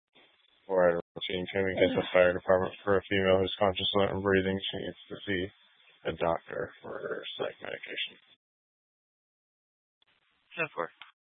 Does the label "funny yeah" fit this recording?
No